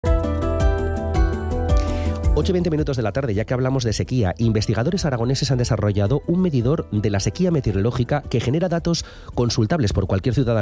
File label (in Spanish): Entrevista Radio; PTI Clima; INDE SPEI; Google Earth Engine